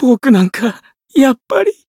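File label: Voice retire, Japanese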